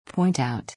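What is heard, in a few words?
"point out", English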